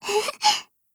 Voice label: MOOD JP